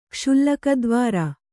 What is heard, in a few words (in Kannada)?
"ಕ್ಷುಲ್ಲಕದ್ವಾರ", Kannada